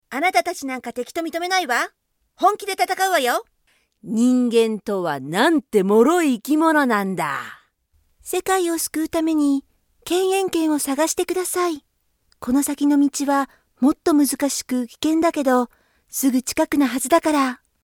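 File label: Japanese, game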